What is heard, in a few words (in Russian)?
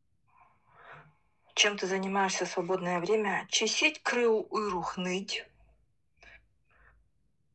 время нивхский